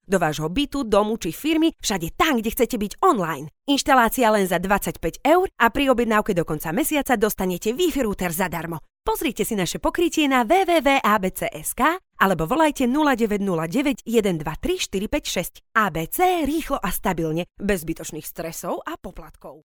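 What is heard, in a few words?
Slovak, female, commercial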